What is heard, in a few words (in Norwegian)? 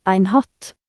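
"hat", Norwegian Nynorsk; ktuberling; games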